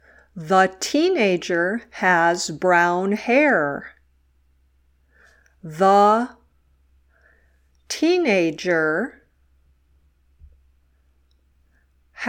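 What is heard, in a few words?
"dictation", English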